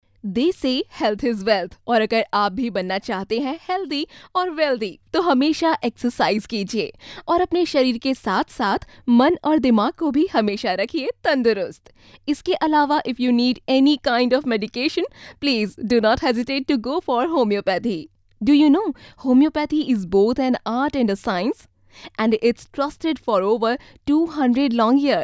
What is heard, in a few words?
hindi female; dictor; dictor-sounds